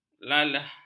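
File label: "lalah", Malay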